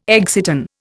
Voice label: "exciton", Indian